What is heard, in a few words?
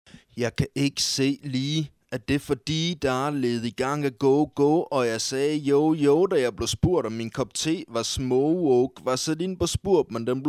rap 80bpm